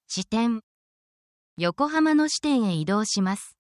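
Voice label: Japanese word, japanese phrases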